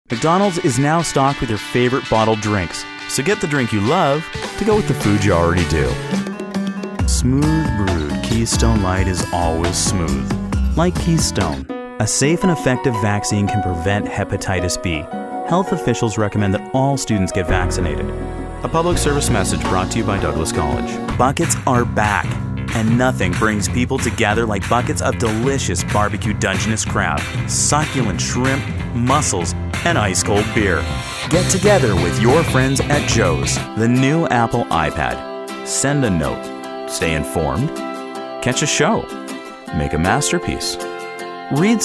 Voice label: Commercial, voice over